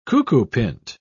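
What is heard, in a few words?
"cuckoopint", British English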